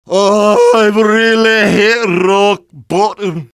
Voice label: jeers; demoman; Garry's Mod; tf2 content